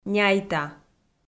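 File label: "няйта", Komi, female; kpv audio dict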